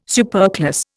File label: "superclass", Indian